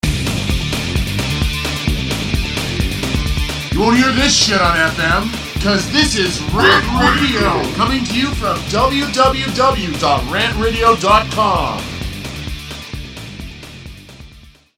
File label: Promo; Shit On FM; RantRadio; Station Promos-In House